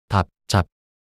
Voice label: "답잡" 낱소리 남자

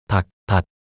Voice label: 닭닻 낱소리 남자

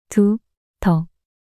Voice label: 두더 낱소리 여자

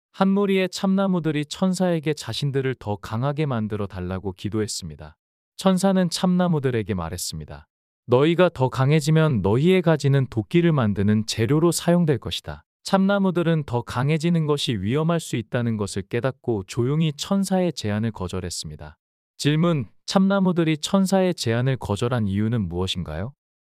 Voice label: 이야기 남자; 참나무들과 천사

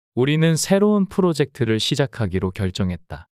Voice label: 문장 남자; 우리는 새로운 프로젝트를 시작하기로 결정했다

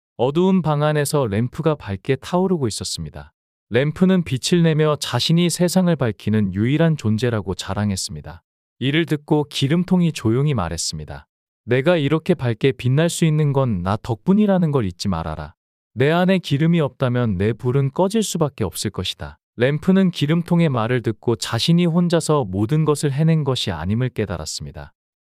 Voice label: 이야기 남자; 램프와 기름통

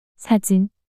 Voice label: "사진" 단어 여자

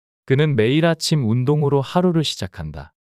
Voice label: 그는 매일 아침 운동으로 하루를 시작한다 문장 남자

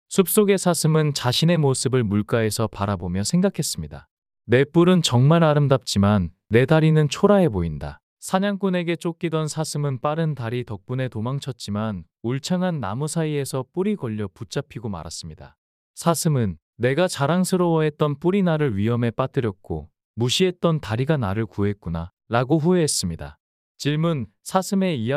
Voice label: 이야기 남자; 사슴과 자기 비하

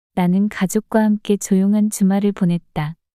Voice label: 나는 가족과 함께 조용한 주말을 보냈다 문장 여자

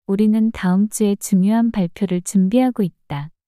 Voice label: 우리는 다음 주에 중요한 발표를 준비하고 있다 문장 여자

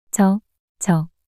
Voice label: "저저" 낱소리 여자

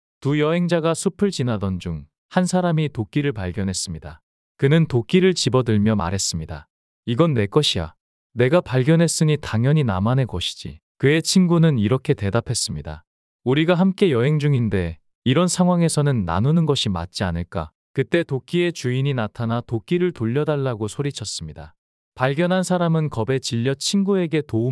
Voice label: 이야기 남자; 두 여행자와 도끼

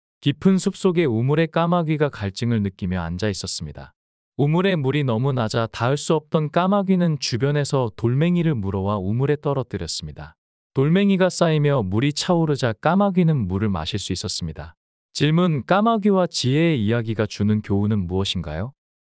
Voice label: 까마귀와 지혜 이야기 남자

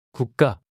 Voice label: "국가" 단어 남자

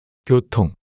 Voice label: "교통" 단어 남자

